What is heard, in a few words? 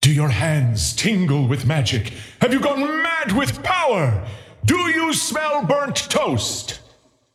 scepter announce in game; dota